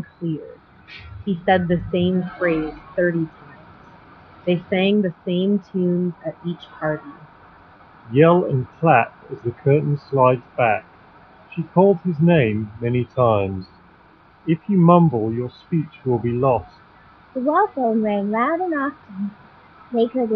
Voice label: Pioneer HDJ CUE1 BT Street microphone sample